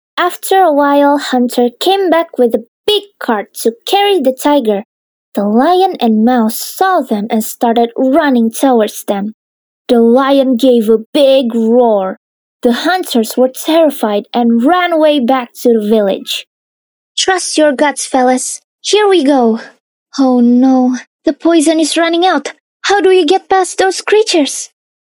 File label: CHARACTER ENGLISH